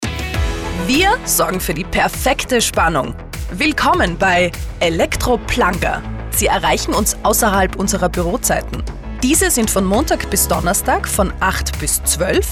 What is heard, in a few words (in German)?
Nachtansage; Elektro Plangger; telefonansagen sonstige